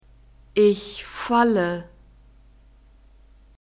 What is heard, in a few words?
"ich falle", German; verbs present tense irregular